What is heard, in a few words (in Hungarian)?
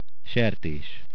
pork, hungarian